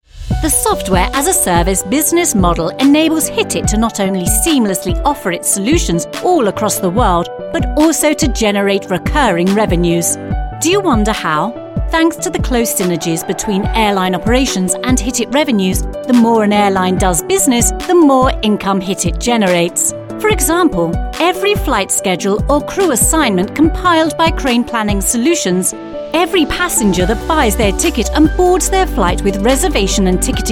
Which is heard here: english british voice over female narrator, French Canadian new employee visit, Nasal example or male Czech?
english british voice over female narrator